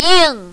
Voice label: "ying4", mandarin, female